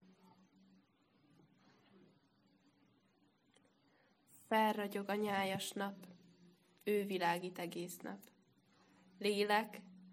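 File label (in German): Morgenspruch Ungarn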